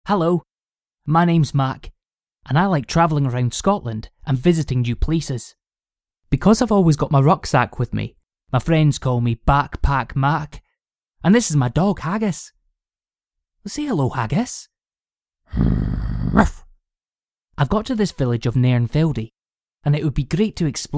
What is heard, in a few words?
male English Scottish e-learning